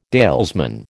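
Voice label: "dalesman", American English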